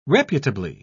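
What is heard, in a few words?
"reputably", British English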